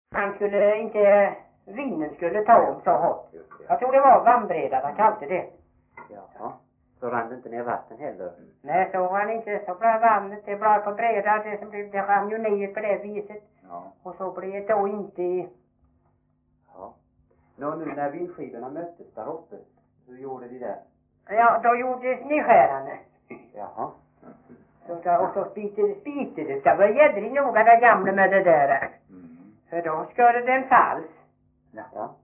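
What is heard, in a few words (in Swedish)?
Veddige Halland, Svenska sagor dialekter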